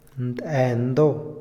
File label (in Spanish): "honda", Otomí, hombre; INALI